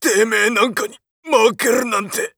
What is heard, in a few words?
macho down, voice